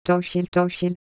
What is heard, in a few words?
Korean, ideophones; Shape